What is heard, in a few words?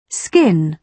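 "skin", British English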